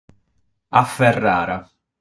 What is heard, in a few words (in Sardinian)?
"Aferrada", sardu